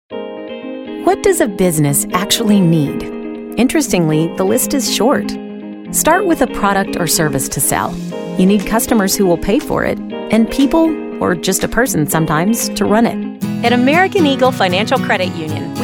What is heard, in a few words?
commercial